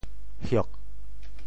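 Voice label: "hiok4", Teochew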